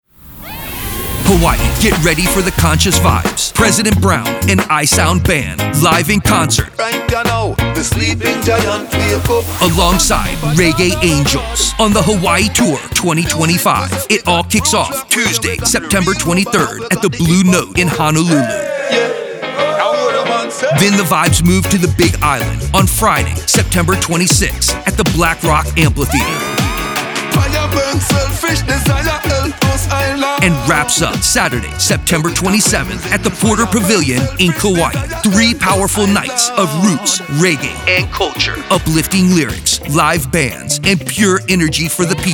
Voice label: Promo Ad